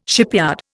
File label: "shipyard", Indian